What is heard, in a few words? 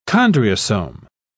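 "chondriosome", British English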